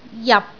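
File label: "jap6", Cantonese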